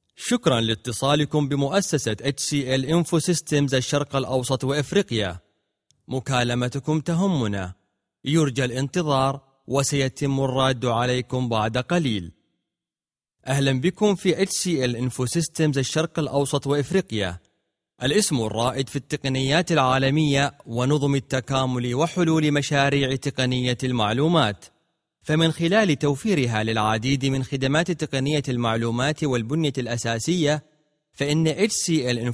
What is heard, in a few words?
Phone Auto Answer; Classic Arabic